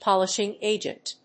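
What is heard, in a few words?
"polishing agent", English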